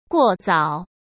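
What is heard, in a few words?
"过早", Mandarin Chinese; chinese flashcards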